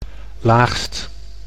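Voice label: "laagst", Dutch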